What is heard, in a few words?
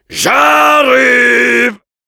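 Heavy taunts, French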